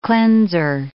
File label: "cleanser", English